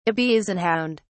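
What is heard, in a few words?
"ibizan hound", British English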